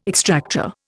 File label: "extractor", Indian